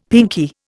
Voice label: "pinkie", Indian; Dictionary